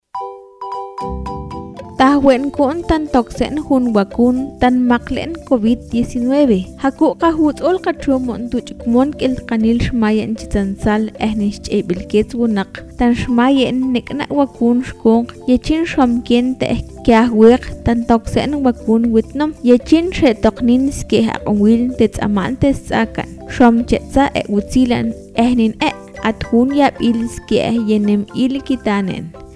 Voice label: Awakateko Spot; IGSS; covid-19

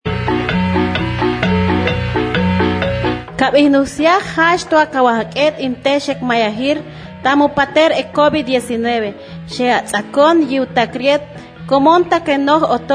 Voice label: Chorti spot; IGSS

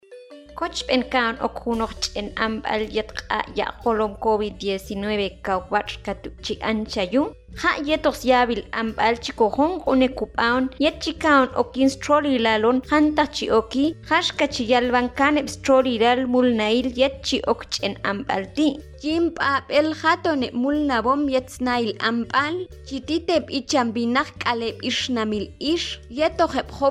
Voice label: Akateko spot; IGSS

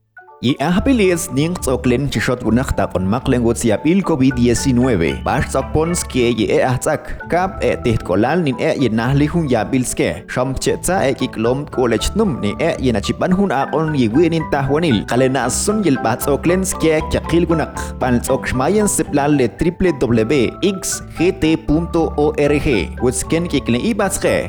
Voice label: Chalchiteko Spot; IGSS; covid-19

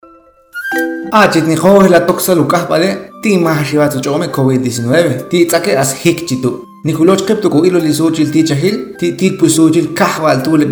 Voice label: Ixil Spot; IGSS

